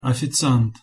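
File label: "oficiant", Russian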